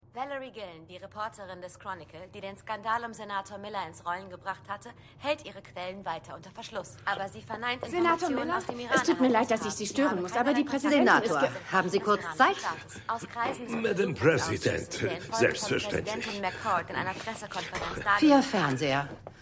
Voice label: Nachrichtensprecherin; Taylor; Madam Secretary

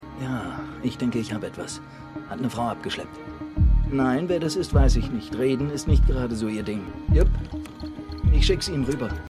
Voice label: Mann Ueberwachungswagen, Stimmproben

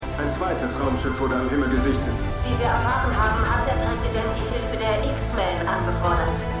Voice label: Reporter Nachrichtensprecherin; X-Men Dark Phoenix

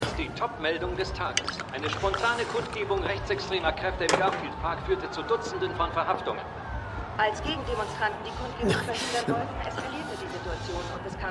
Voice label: Nachrichtensprecher Nachrichtensprecherin; Shameless

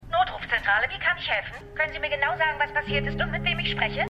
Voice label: Notruftelefonistin; Madam Secretary

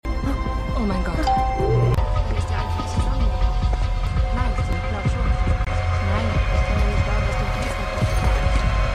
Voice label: Kassiererin; Severance

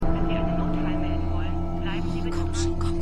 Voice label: Automatische Notrufstimme; Truth Be Told

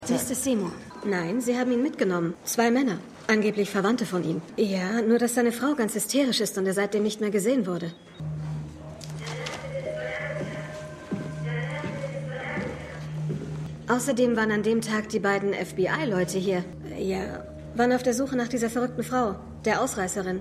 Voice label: Krankenschwester Durchsage; Krankenschwester Briggs; Fargo

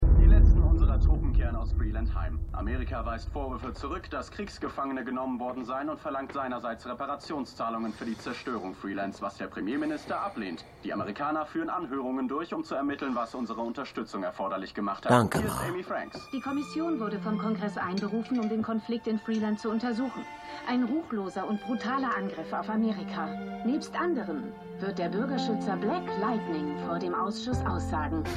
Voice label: Nachrichtensprecher; Black Lightning

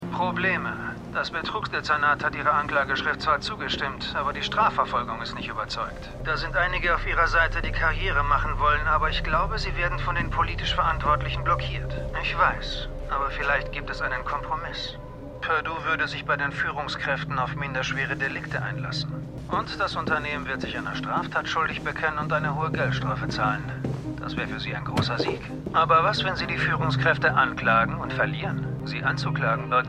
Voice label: Telefonpartner, Stimmproben; Dopesick

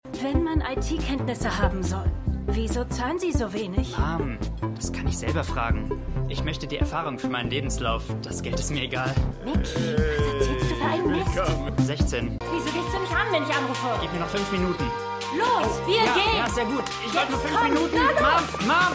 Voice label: Mutter; Mikeys Mutter; WeCrashed

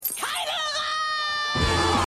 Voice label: Frau, Stimmproben

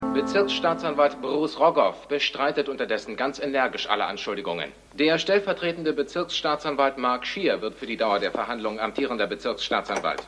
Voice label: Nachrichtensprecher

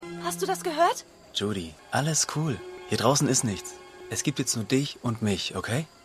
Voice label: Junge, Stimmproben; Judy

